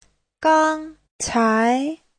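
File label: "gāngcái", Mandarin Chinese; Giáo trình Hán ngữ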